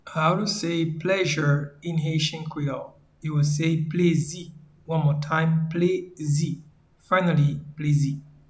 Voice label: Pleasure in Haitian Creole Plezi audio translation